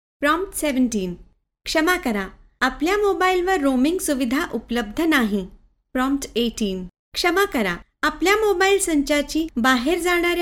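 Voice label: Marathi General; GoLocalise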